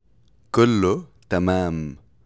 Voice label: Arabic greetings